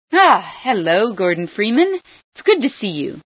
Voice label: hello, female; hellofreeman